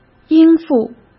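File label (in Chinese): "应付", Mandarin Chinese; hsk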